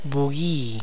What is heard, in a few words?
"guavas", tlacochahuaya